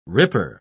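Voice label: "ripper", British English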